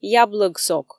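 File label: "яблӧг сок", Komi, female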